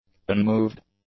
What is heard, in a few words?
"unmoved", English; adjectives